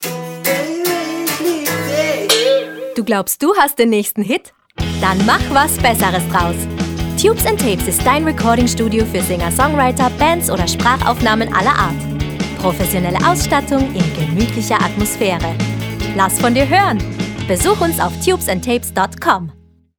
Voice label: Radio Spot